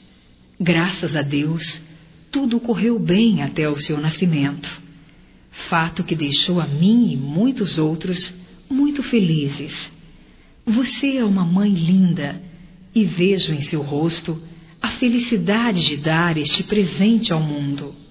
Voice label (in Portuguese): Parabéns Pela Criança Feminino; Telemensagens 2017